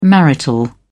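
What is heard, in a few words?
"marital", English, British English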